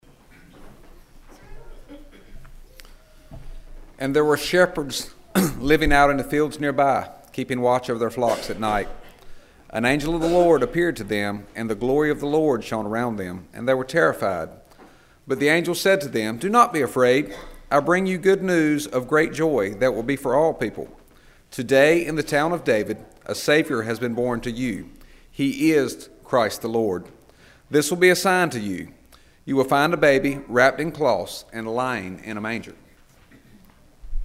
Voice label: narration; Kipling United Methodist Church